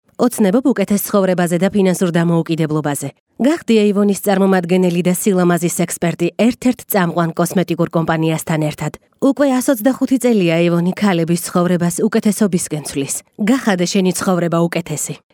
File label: Georgian female